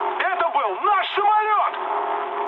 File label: ru player killed ally mood med; War Thunder